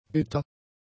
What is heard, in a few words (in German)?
"bitter", German; adjectives